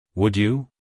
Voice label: "Would you" us male